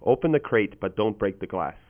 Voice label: Male